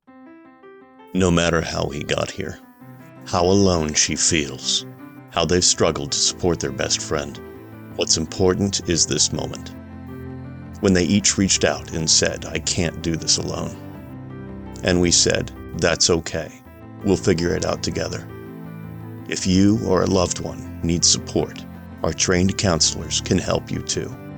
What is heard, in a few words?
Persuasive Dramatic Read - Public Service Announcement